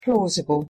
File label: "plausible", pronunciation en